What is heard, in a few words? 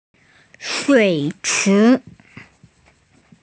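"pool", female; shui3chi2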